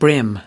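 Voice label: "brim", US English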